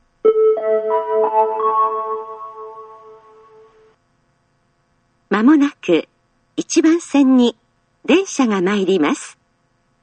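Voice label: platform 3 approaching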